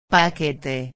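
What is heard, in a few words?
"paquete", Spanish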